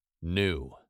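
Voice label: "new", British English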